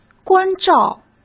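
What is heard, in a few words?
"关照", Mandarin Chinese; hsk; chinese-flashcards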